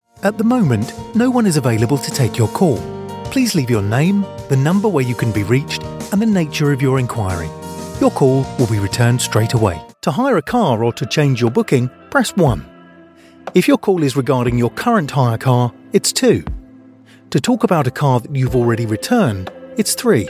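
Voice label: telephone ivr, Energetic and Natural Male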